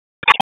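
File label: Sheriff's Office Dispatch; WSO DISP 1; wake p25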